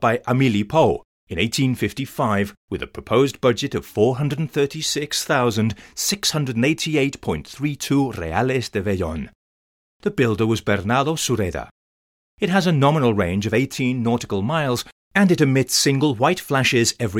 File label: lighthouse audioguide; isla del aire